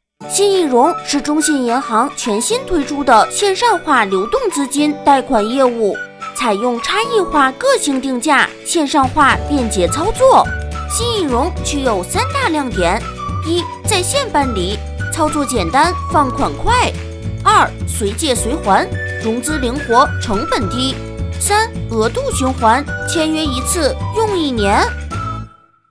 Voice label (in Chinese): 男童; 中信银行